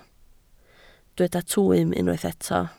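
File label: "twym" Welsh, spoken word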